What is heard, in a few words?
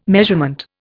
"measurement", Indian